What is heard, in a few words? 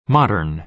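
"modern", American English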